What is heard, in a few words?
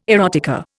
"erotica", Indian